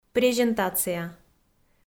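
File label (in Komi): "презентация" Komi, female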